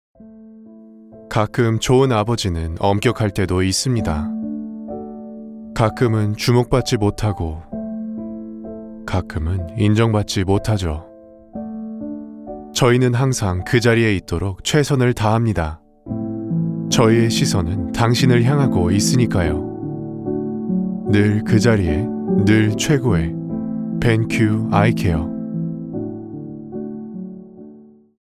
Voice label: Korean narration; coreano